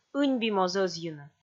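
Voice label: "ыньби моз ӧзйыны", Komi, female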